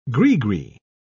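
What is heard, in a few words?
"grigri", British English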